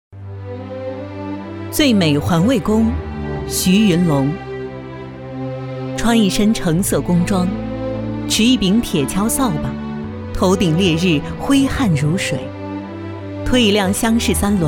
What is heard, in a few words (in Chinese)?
女 最美颁奖人